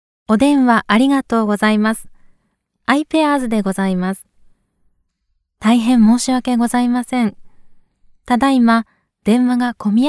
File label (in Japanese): jp normal